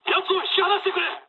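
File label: aircraft damaged mood low, speech japanese; War Thunder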